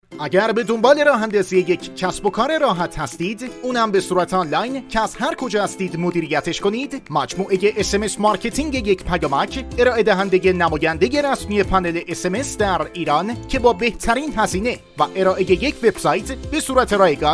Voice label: narrator